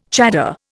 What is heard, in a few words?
"chador", Indian